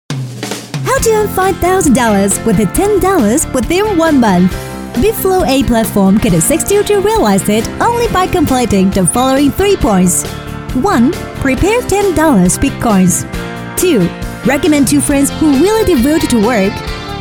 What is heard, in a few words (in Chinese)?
美式海伦欢快时尚